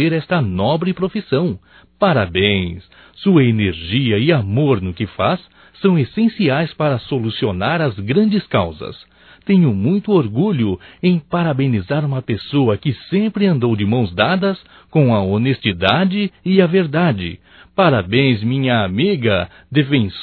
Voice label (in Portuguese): HOMENAGEM A ADVOGADA, Telemensagens Só Voz masc; HOMENAGENS